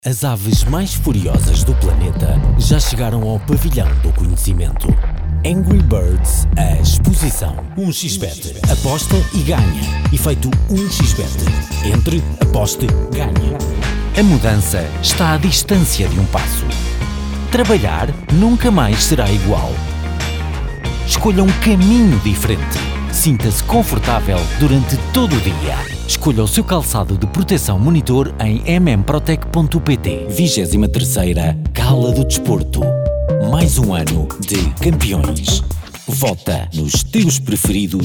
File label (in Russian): Мужской португальский